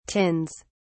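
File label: "tins", British English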